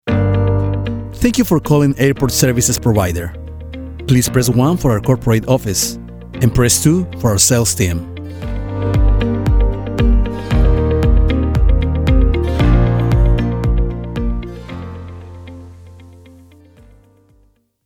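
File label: english interactive voice response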